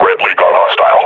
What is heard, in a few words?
player is hurt, grunt; Half Life Model Pack